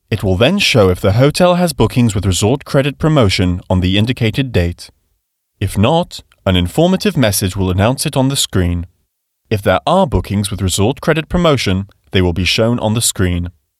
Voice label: locutor britanico english voiceover; English British voice talent